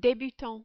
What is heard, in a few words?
"debutant", French